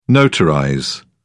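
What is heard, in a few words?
"notarize", British English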